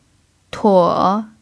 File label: "tuo3", chinese